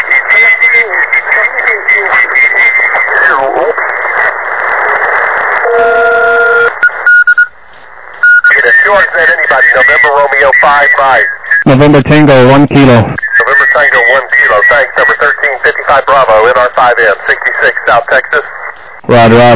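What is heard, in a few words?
upper sideband 21MHz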